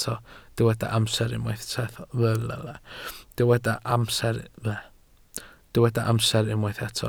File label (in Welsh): "amser", Welsh